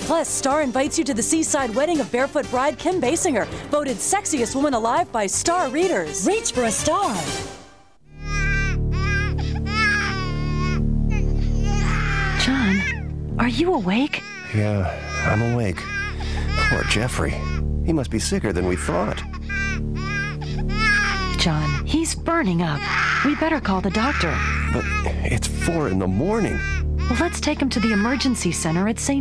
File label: Voice Over